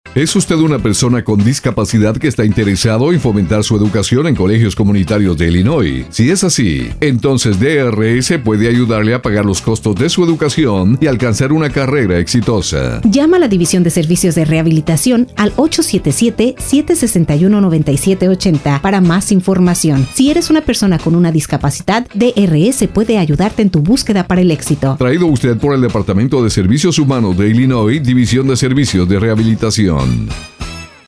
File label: Education Spanish